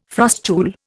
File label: "frustule", Indian